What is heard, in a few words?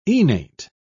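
"enate", British English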